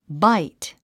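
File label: "bite", English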